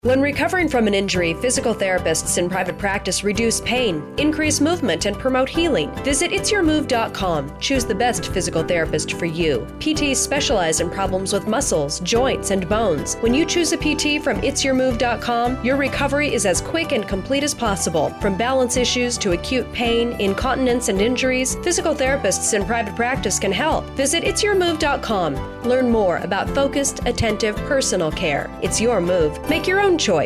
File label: radio commercial